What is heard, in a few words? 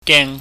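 "geng", Cantonese, mid level tone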